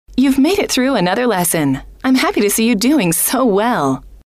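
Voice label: you've made it through another lesson I'm, female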